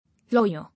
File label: "Lawyer", English